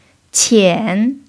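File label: "qian" third tone, chinese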